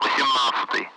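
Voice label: Speech, Russian, 8th; Allied Flight; DCS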